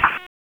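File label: Raleigh Police North District Tactical; wake; p25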